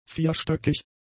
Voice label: "vierstöckig", German; adjectives